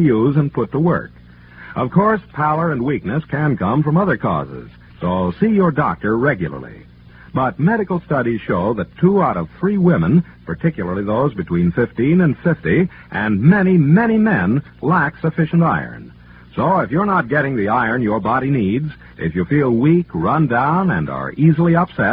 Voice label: vintage commercials ironized yeast